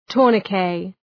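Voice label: "tourniquet", English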